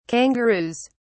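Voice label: "kangaroos", British English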